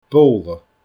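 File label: "bobhla", Scottish Gaelic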